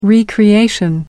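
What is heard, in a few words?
"recreation", British English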